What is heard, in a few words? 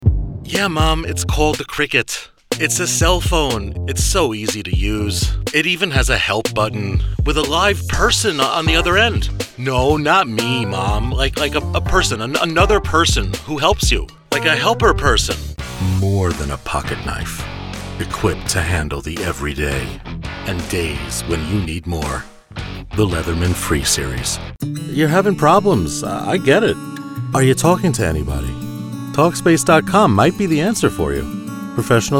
Commercial